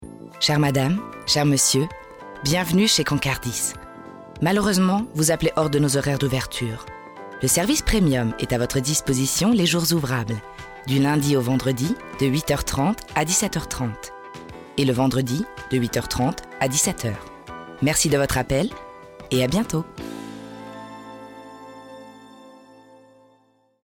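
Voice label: French telephone; sprecher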